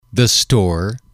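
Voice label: "the store", English, male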